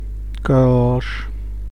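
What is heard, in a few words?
"kölsch", male; Ksh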